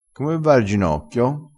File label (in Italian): "megliora", crespinese